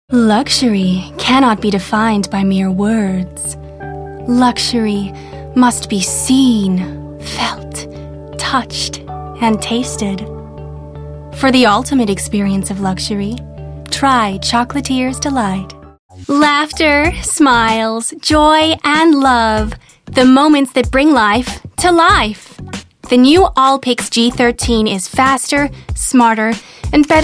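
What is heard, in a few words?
American TV commercial; US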